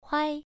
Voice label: "huai1", pronunciation